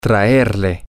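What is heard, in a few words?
to bring him, Spanish, male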